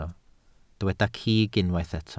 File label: "cig", welsh